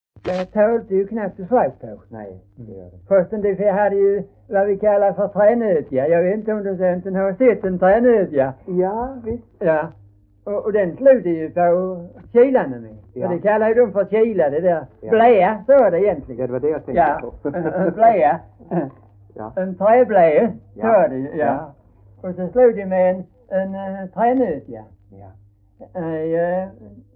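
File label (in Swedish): Hörja Skåne, dialekter; Folkminnen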